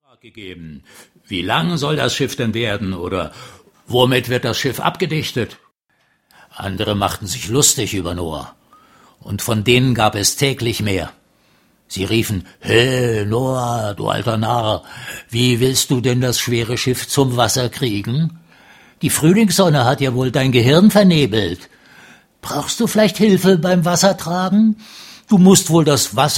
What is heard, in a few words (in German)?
Erzaehler